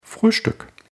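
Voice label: "frühstück", German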